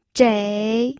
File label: "zhei3", pinyin